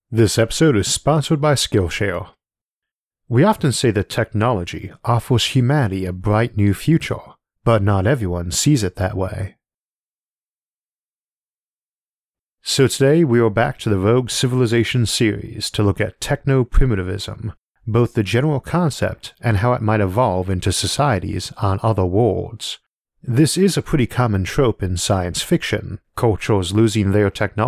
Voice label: narration only; techno primitivism

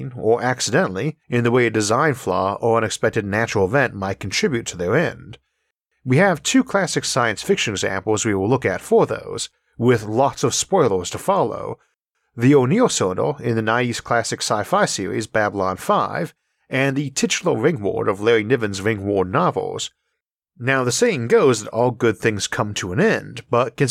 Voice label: megastructure death narration only

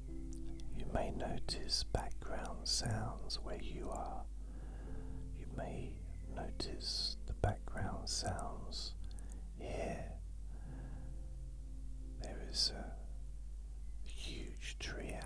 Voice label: asmr slowing time in your mind deep sleep whisper; hypnosis

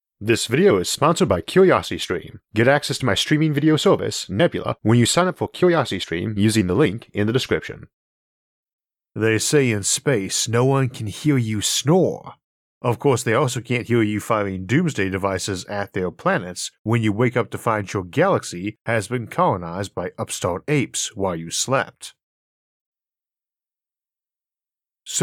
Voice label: hibernating aliens narration only; isaac arthur

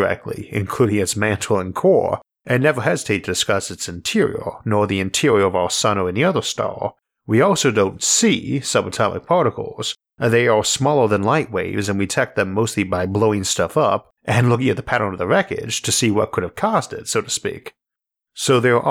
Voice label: dark matter technologies narration only; isaac arthur

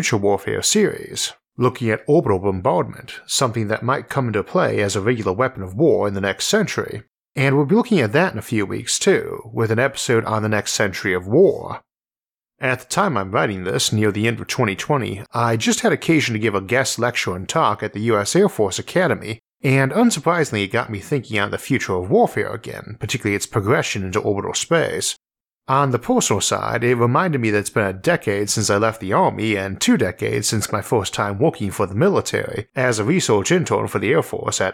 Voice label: narration only; isaac arthur; orbital bombardment

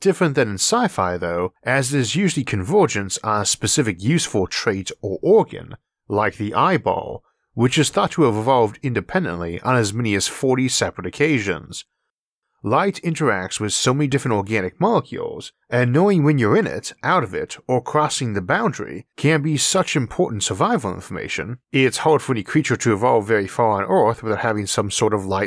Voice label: convergent evolution narration only; isaac arthur

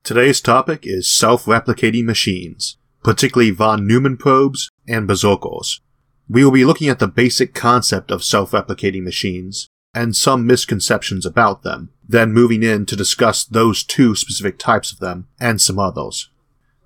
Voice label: narration only; isaac arthur; self replicating machine

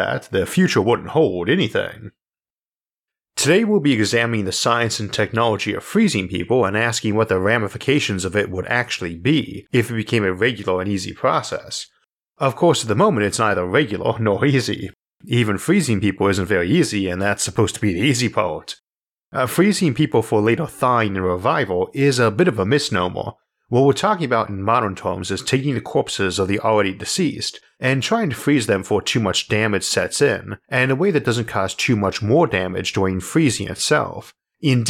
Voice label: cryonics frozen civilizations narration only; isaac arthur

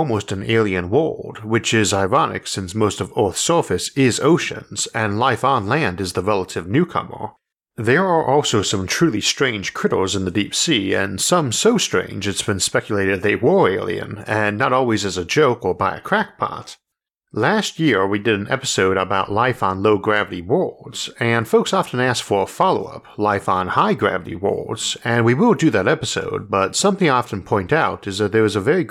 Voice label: oceanic aliens narration only; isaac arthur

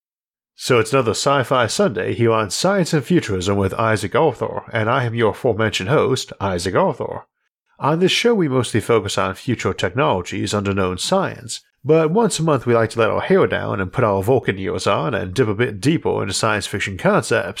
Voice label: death rays narration only; isaac arthur

